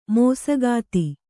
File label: "ಮೋಸಗಾತಿ", Kannada; mōsagāti; noun; a cheat; a fraud.